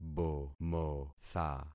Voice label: "bomotha", concat syllables; Affixing experiment